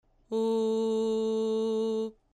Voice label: "U", VOX